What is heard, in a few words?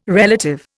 "relative", Indian